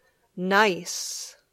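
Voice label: "nice", English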